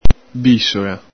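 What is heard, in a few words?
"bisola", Veneto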